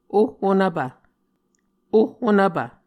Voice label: "oh onvpv", muscogee

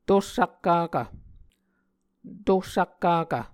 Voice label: "tor-sakkakv", muscogee; Mvskoke Words with Repeated Consonants; A Dictionary of Creek/Muskogee; SIL International

